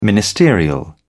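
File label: "ministerial", British English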